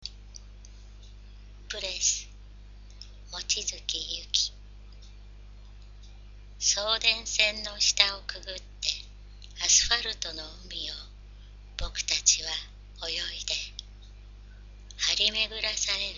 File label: poem read; breath